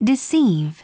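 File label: "deceive", English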